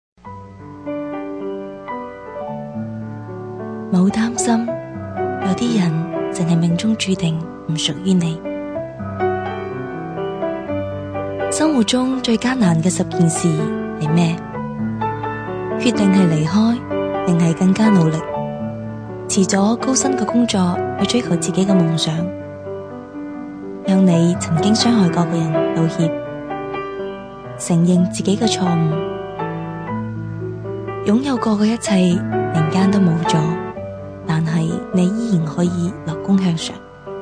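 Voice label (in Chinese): 女粤语; 别担心，有些人只是命中注定不属于你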